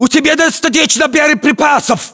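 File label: status check, battlechatter, Russian; localized english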